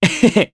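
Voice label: Happy, Japanese; Kasel; kingsraid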